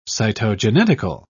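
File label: "cytogenetical", British English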